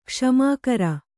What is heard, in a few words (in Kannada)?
"ಕುಸುಮಾಕರ", kannada